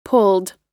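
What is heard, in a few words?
"pulled", American English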